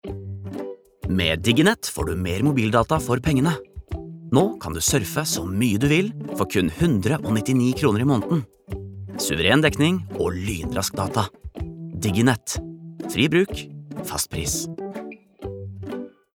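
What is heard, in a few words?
no commercial, Norwegian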